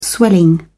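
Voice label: "swelling", British English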